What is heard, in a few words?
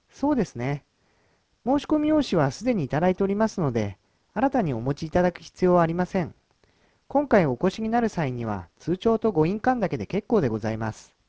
Ja JP narrow; watson stt; bluemix